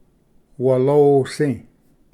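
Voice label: "walosi", Cherokee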